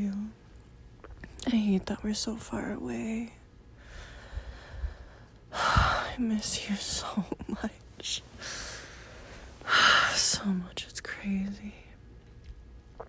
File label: tipsy voice note; Ramble fap; F4A